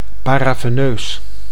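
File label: "paraveneus", Dutch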